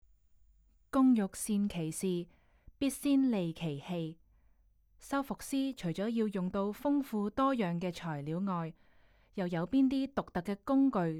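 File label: Cantonese, audio guide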